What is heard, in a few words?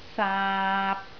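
"saap3" Cantonese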